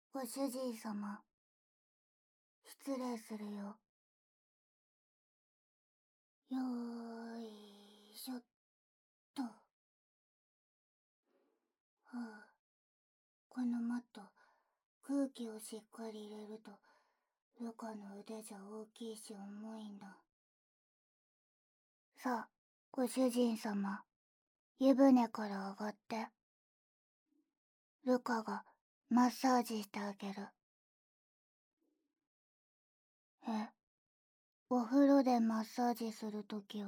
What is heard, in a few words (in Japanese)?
お風呂で“マッサージ”してあげる、ご主人様 反響無し, ASMR; SE無し